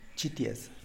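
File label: "txitias", Tlacochahuaya Zapotec; type of bird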